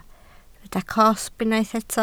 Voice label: "cosb", Welsh